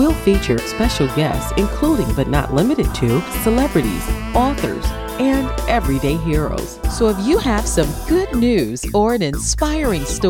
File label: Podcast Invite; Positive Pulse